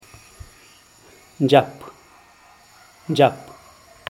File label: "dxap", senorita, zapoteco teotitlan